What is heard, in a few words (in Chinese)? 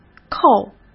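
"扣", Mandarin Chinese; hsk; chinese-flashcards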